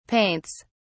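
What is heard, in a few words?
"paints", British English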